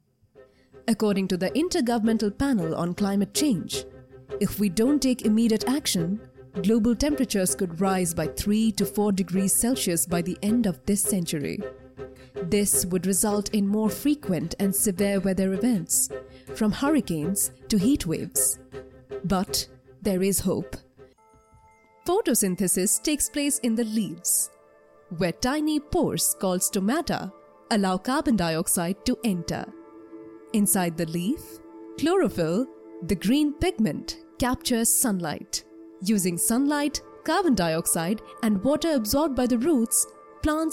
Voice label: Explainers

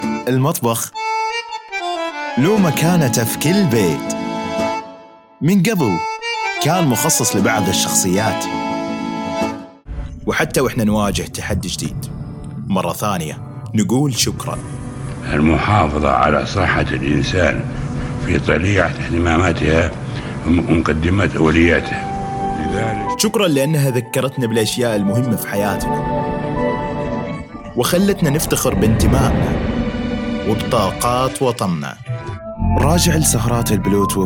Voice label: Saudi

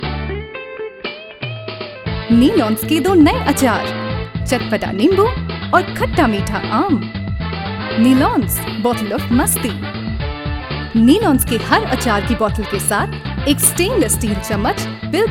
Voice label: promo hindi; nilons

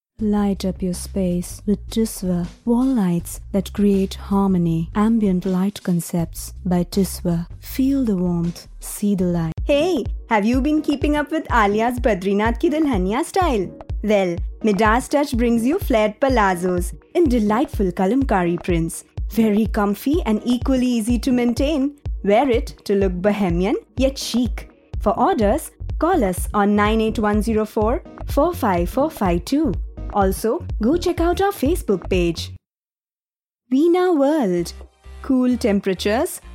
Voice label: Commercial English

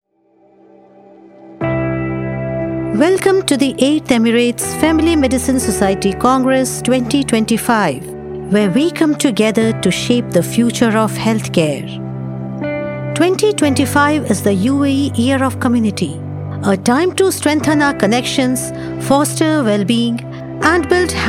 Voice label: Eng; 8th Emirates

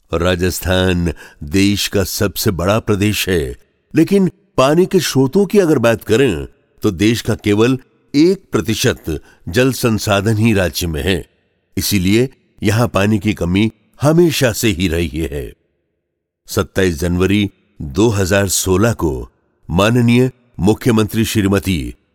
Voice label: narration

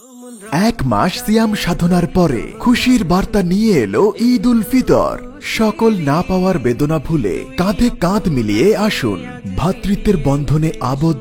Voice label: bangla

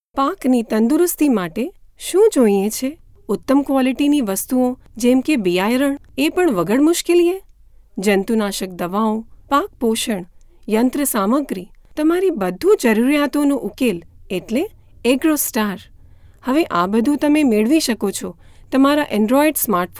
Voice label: narration gujarati

